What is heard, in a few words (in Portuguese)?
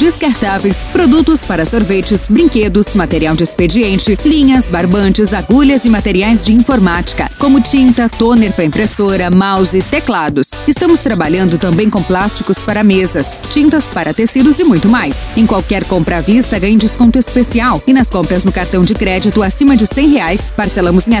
spot promocao papelaria